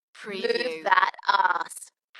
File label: UK female, Dalekness; Move that arse; beanstalk audio